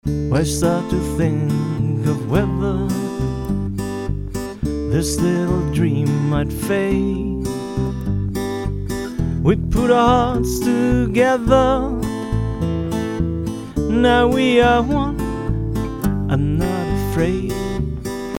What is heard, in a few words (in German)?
akg dry, weichen Bariton